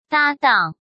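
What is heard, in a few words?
"搭档", Mandarin Chinese; hsk; chinese-flashcards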